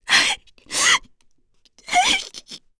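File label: Vox Sad, English; FreyB; kings raid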